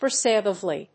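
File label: "perceivably", English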